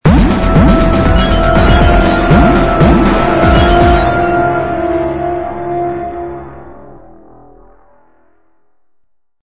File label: odd voices